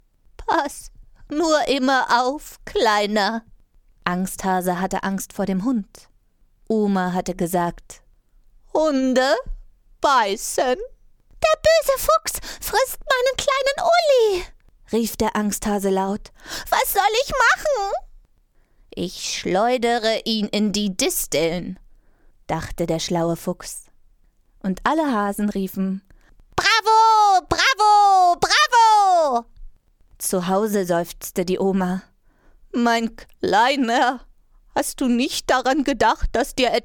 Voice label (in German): hoerspiel mehrere charaktere fuer kinder; angsthase; casting stimmprobe